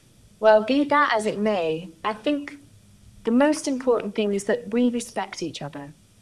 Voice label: Voice Acting, es, F0